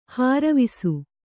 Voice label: "ಹರಿವಾಸ", kannada